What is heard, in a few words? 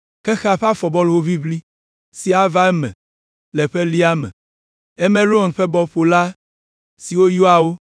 ewe sent; bibleTTS; out-of-domain